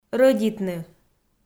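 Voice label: "рӧдитны", Komi, female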